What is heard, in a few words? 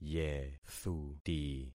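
"yethuti", concatenated syllables; Affixing experiment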